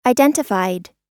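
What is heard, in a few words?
"identified", US English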